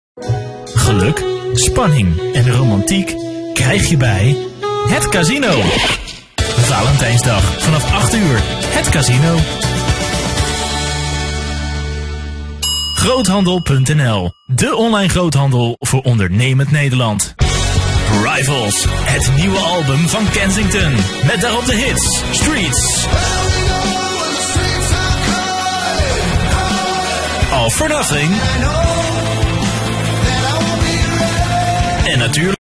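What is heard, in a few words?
Dutch, male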